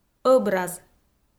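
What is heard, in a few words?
"ӧбраз", Komi, female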